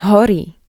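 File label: "horí", Slovak; DP-Logopedicka-Platforma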